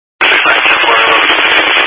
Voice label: Highway Patrol, scanner; DPS; Dist 06 Casa Grande